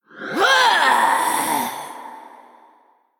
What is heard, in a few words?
Female Troll Drakkari Attack